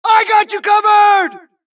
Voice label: supress, dialogue Allies; mohaa; Mission 2